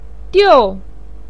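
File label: "diu" Mandarin Chinese, fourth tone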